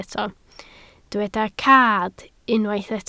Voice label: "cad", Welsh

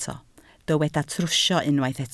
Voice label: "trwsio" Welsh

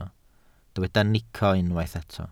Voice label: "nico", Welsh

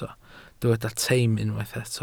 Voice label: "teim" Welsh

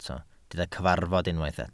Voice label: "cyfarfod" Welsh

